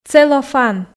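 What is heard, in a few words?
"целлофан", Komi, female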